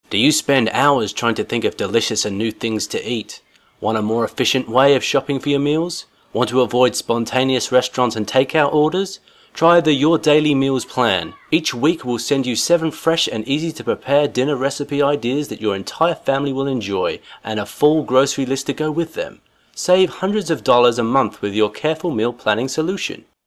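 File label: British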